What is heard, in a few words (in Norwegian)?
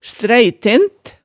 "stræitent", hemsedalsmaalet